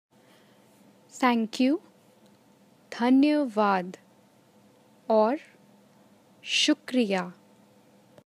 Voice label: Thankyou Hindi